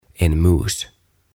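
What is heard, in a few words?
"En Mus" Swedish; Hiiri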